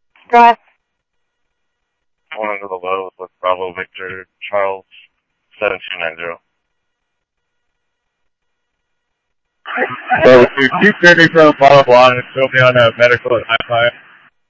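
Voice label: police radio dispatch, Scottsdale; SCTSDLE PD - PD1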